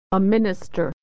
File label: "minister", pronunciation